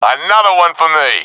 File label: i killed enemy, professional; vo; Counter Strike Model Pack; CS:GO